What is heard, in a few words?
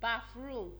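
"bafroom", Nigerian Pidgin, female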